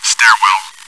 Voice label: stairwell, bot; csgo